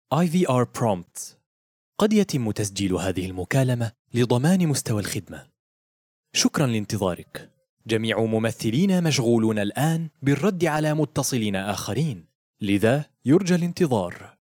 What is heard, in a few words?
On Hold Telephone Msg, Arabic